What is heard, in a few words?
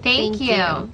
thank you female duo; Voice; expletives